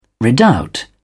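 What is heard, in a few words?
"redoubt", English, British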